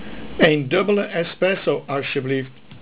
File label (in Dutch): "espresso" Dutch